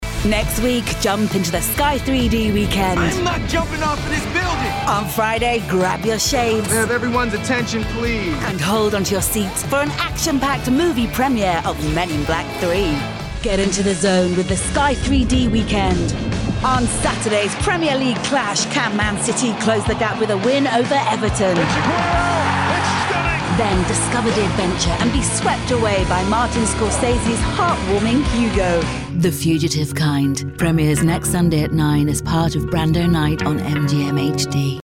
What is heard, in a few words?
TV Promos; reels